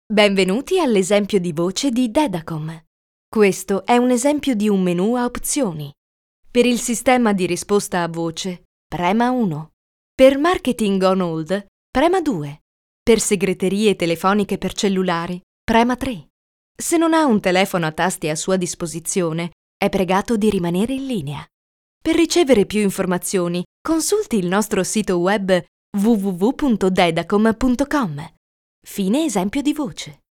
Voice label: Voice over Italiaans vrouw

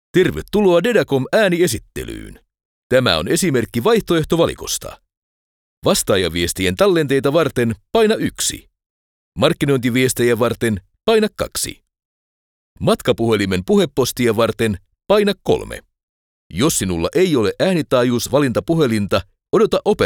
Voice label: Voice over Fins man

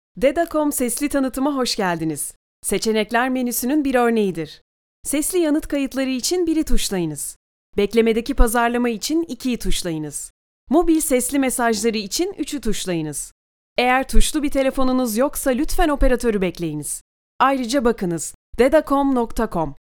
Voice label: Voice over Turks vrouw

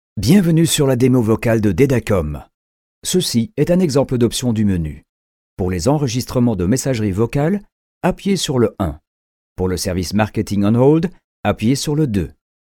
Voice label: Voice over Frans man

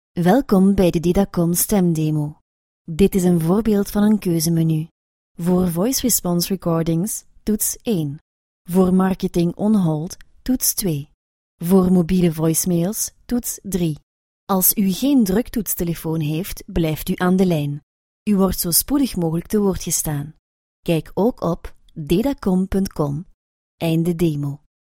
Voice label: Voice over Vlaams vrouw

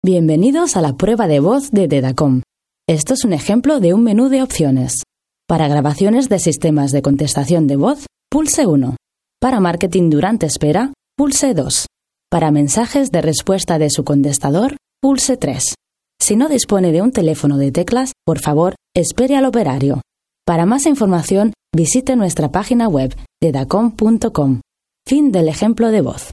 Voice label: Voice over Spaans Europees vrouw

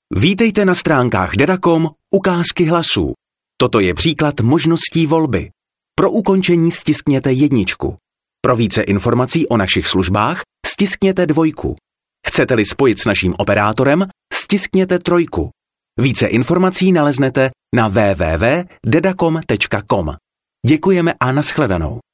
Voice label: Voice over Tsjechisch man

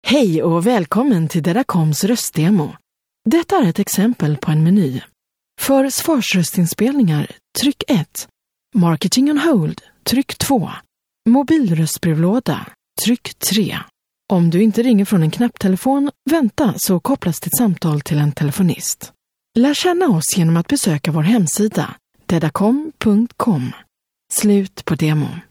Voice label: Voice over Zweeds vrouw

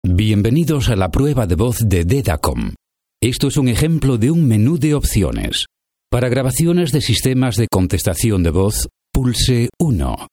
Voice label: Voice over Spaans Europees man

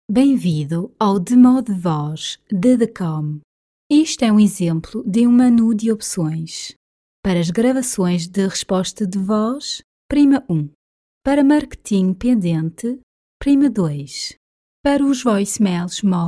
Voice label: voice over Portugees Europees vrouw

